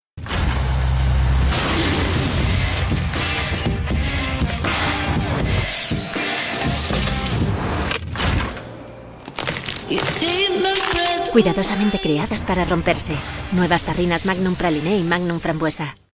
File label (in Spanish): PUBLICIDAD SENSUAL